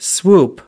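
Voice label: "swoop", US English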